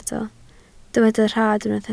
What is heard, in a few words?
"rhad", Welsh